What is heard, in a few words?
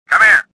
suspicious; swamp